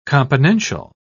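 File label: "componential", British English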